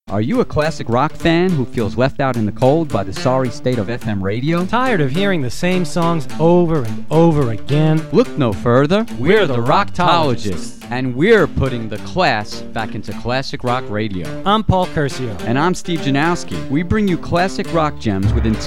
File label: egypt reggae promo